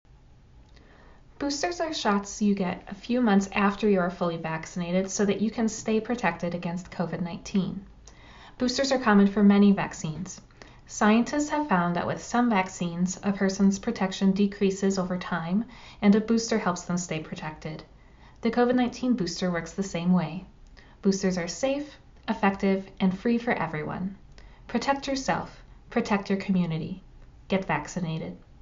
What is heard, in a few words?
Boosters PSA ENGLISH